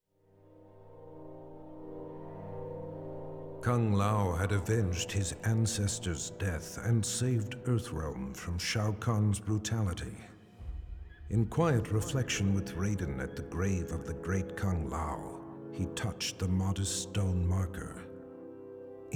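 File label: music voice over endings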